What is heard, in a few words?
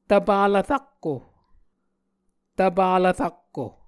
"tvpalv-rakko", muscogee